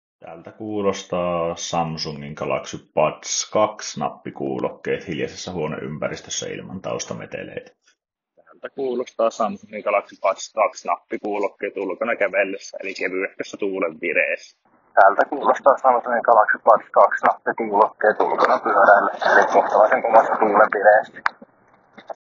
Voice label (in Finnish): Samsung Galaxy Buds2 mikrofonin ääniesimerkki